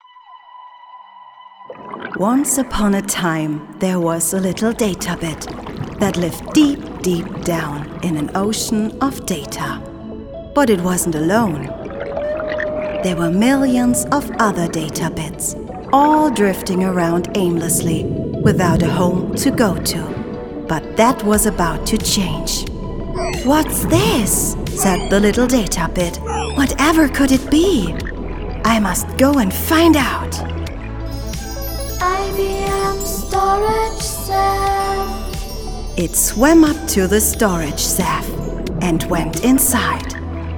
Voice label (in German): produktfilm englisch; ibm